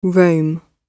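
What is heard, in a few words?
"roam", British English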